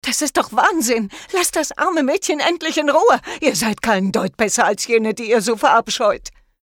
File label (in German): Drama Sequenz; hoerbuch